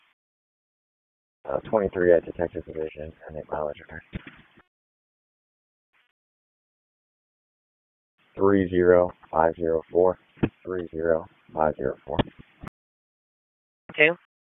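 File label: Police Dispatch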